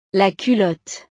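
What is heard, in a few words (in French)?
"la culotte", French; ropa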